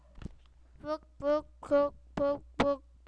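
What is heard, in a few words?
"book", spoken word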